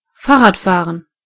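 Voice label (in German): "ride", German; gcompris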